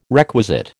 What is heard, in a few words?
"requisite", American